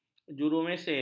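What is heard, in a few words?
"jurumesin", Malay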